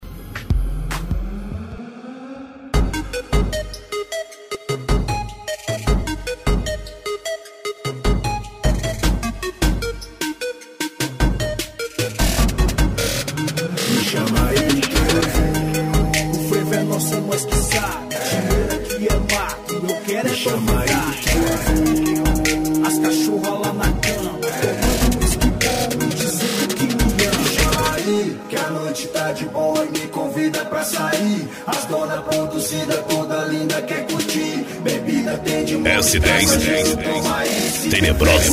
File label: rap